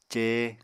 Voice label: "cee", apatani

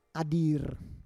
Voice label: "adir", Roon

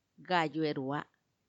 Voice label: "gayuerua", twentyfive, macuiltianguis; veinticinco

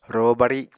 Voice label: "ro bari", apatani

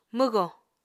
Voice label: "mwgò", Apatani

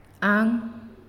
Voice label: "This", Naasioi

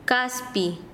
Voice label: Chanka, quechua; palo

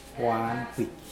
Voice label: "wahnpwij", Mokilese

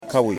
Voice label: Small Snake, yimas

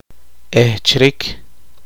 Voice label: poqomchi; heredarse

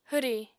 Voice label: "hwri", Apatani

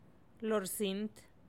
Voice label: "lorsint" stripes; teotitlan

